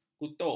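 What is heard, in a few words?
"kutuk", Malay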